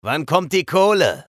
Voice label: chatter neutral; eisen